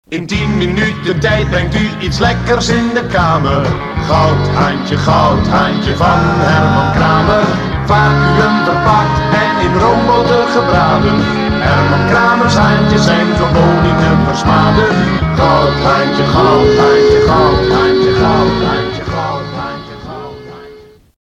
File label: commercial